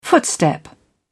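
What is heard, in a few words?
"footstep", en, uk British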